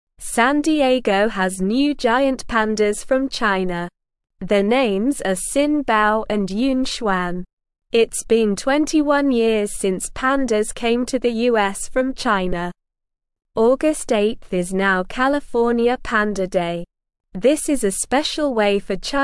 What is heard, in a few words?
English Beginner SLOW Reading; New Pandas Arrive in San Diego from China